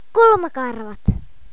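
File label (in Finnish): "kulmakarva", Finnish; ktuberling; tdegames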